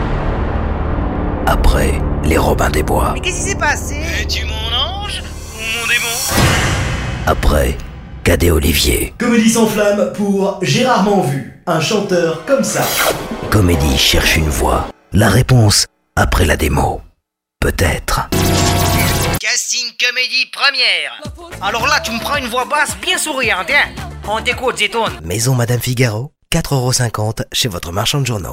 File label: french others